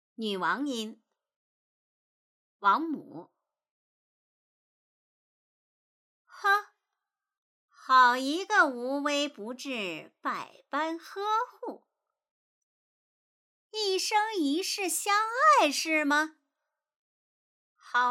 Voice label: 女王音

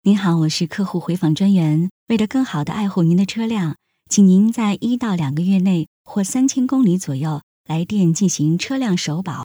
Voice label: 电话客服

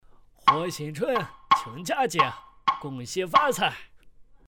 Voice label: 河南话

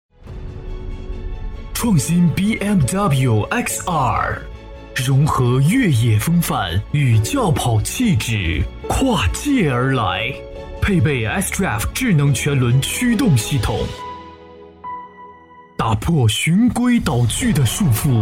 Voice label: 品牌广告 高端时尚; 宝马

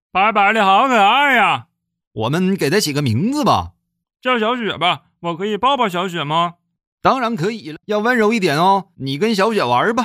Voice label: 儿子和父亲东北方言配音; 奶爸事务局

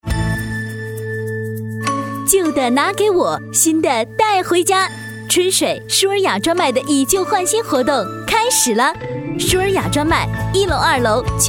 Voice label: 商业促销活动

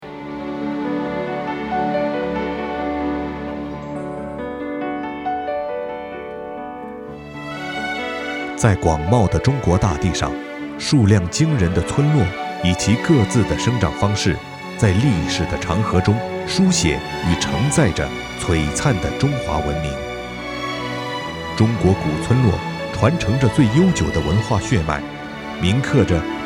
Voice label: 纪录片; CCTV纪录片古书里的古村落

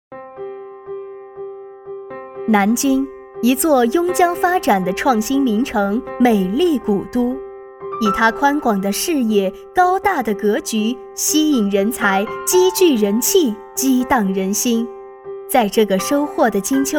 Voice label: 专题企业宣传大气

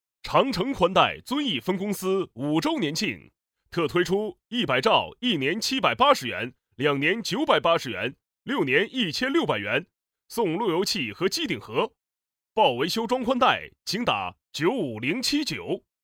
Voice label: 广告叫卖

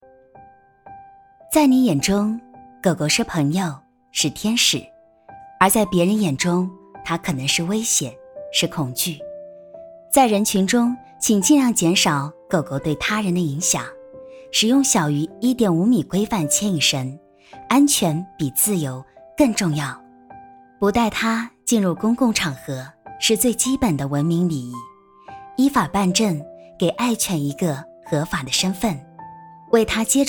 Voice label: 温情社区广告; 维护美好家园

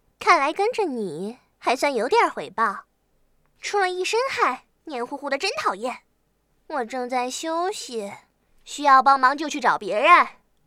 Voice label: 少女狡黠

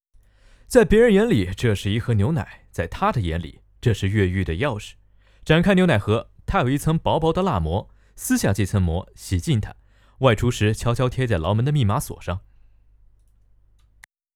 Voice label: 解说

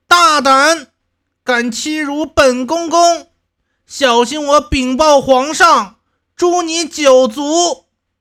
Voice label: 太监音

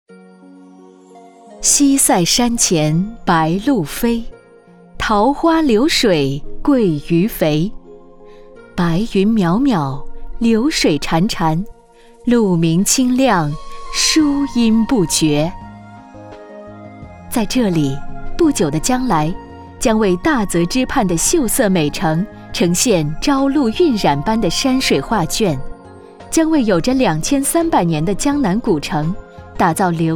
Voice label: 多媒体诗情画意; 湖州高校园区宣讲

